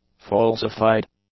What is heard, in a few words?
"falsified", english; adjectives